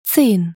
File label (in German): "zehn" deutsch